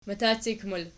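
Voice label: "matacik" plural, Archi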